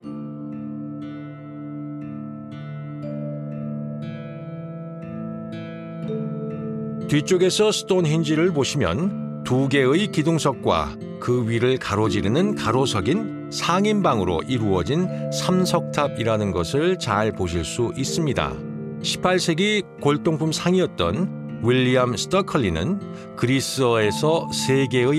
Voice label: Korean; Stonehenge

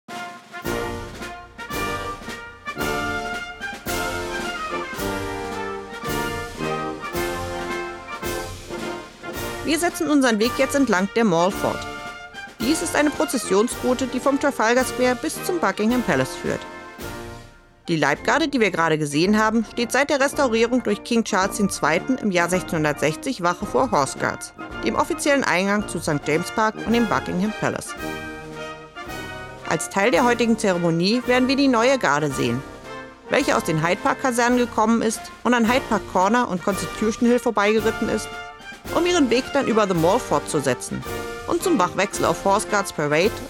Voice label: German; Changing of the Guard

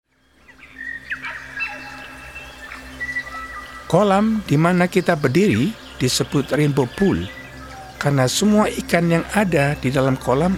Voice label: indonesian

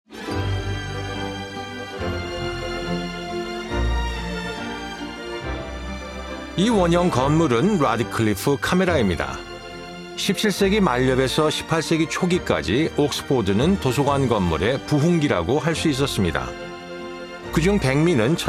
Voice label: Korean; Stonehenge Inner Circle Access

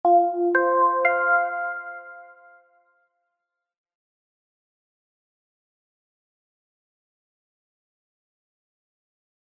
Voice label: lake wakatipu english

